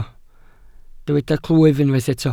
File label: "clwyf", Welsh